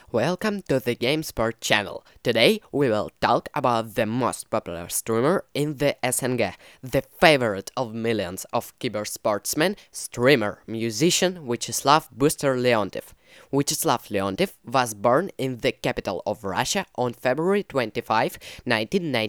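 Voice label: English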